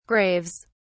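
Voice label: "graves", British English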